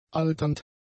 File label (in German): "alternd", German; adjectives